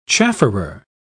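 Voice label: "chafferer", British English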